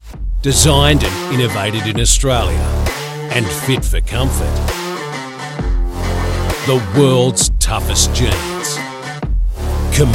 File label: MALE AUSSIE VO